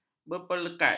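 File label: "berpelekat", Malay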